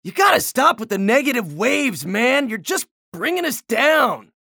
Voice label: chatter negative; anarki; respawn

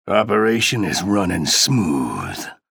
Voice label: chatter positive; ranger; frag

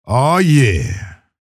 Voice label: chatter positive; keel; frag

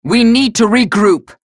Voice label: chatter negative; galena; respawn

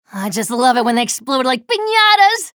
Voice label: chatter neutral; slash

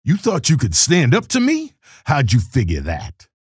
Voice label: chatter neutral; keel

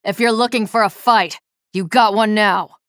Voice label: chatter positive; nyx; frag

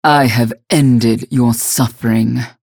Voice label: vo match end victory; galena; unholy paladin; frag

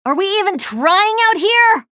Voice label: chatter negative; slash; respawn

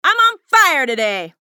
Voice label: chatter positive; athena; frag

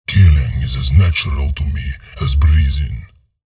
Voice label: chatter neutral, en; visor

